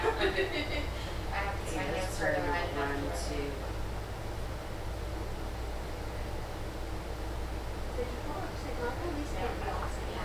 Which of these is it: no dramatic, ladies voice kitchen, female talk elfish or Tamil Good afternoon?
ladies voice kitchen